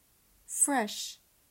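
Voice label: "Fresh", English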